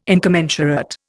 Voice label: "incommensurate", Indian

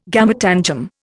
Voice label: "gametangium", Indian

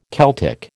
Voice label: "Celtic", American

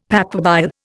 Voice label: "papabile", Indian; Dictionary

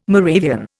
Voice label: "Moravian", Indian

